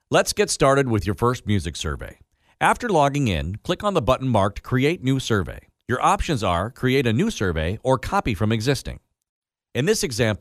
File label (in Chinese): 外籍英男 在线教育 轻快 清亮干音